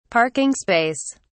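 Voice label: "parking space", British English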